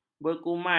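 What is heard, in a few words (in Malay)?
"berkumai", Malay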